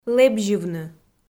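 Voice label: "лэбзьывны", Komi, female; kpv; audio dict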